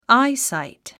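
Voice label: "eyesight", English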